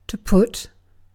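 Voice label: "put", British English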